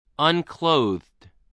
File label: "unclothed", English spelling voice